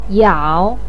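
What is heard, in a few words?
"yao3" Chinese syllable, pinyin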